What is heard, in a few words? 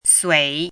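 "sui3" Mandarin Chinese, dipping tone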